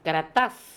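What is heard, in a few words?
"Karatas", pronunciations; Fourth Way; Beelzebub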